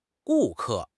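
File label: "gu4 ke4", Mandarin Chinese; HSK4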